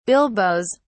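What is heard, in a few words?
"bilboes", British English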